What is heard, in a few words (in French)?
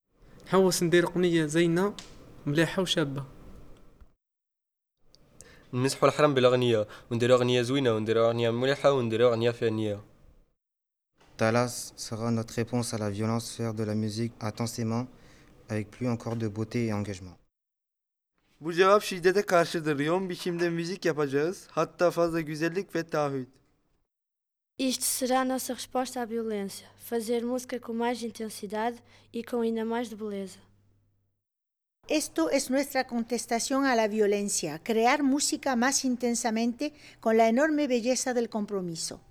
phrases algerien marocain creole turc portugais espagnol par une adulte